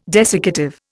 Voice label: "desiccative", Indian